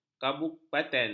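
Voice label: "kabupaten", Malay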